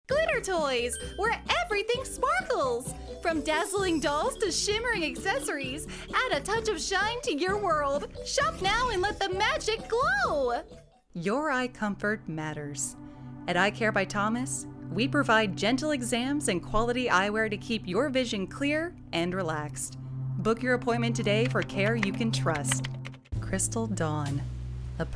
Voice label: Commercial